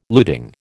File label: "luting", American